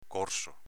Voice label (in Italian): "corso", dialetto veneto; córso s.m.inv. ciclo mestruale